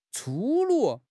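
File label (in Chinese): "粗鲁", Chinese; HSK6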